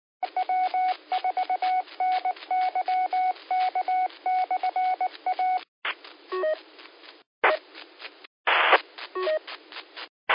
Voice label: qso rec simplex logic